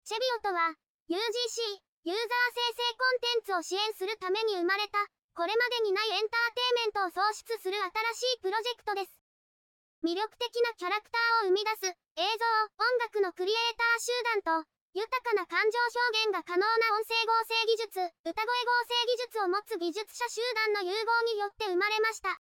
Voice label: voice genki